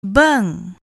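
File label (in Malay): "beng", Malay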